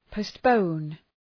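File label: "postpone", English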